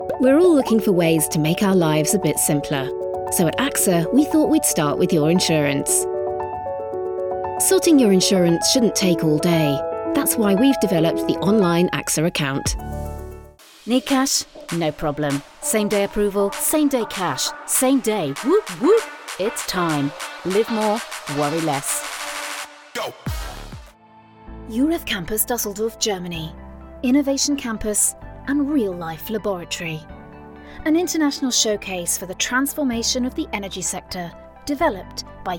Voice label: corporate narration